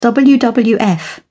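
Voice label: "wwf", English, British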